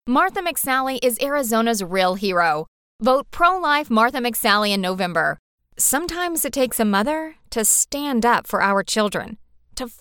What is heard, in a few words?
english american political